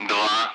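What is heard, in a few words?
Speech Russian; Allied Flight; Digits; DCS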